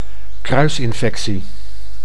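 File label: "kruisinfectie", Dutch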